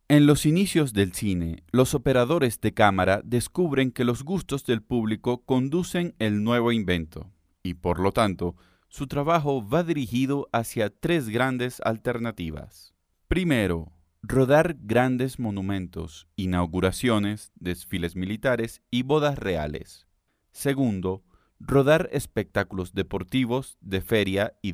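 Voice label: Spanish Latin America male voice over, narrative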